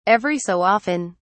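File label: "every so often", British English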